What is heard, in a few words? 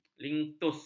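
"linktus", Malay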